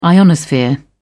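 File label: "ionosphere", English, British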